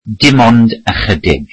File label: welsh Just a little